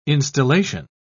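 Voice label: "instillation", British English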